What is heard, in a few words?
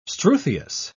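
"struthious", British English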